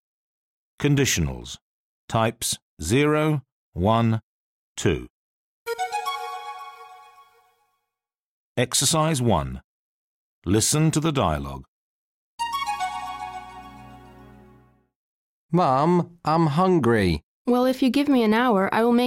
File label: Angielski Grammar Practice audiobook; Podrecznik z cwiczeniami